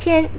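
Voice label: "QIAN", Chinese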